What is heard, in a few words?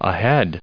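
"ahead", English, us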